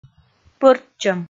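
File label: "пӧртчӧм", Komi, female; kpv audio dict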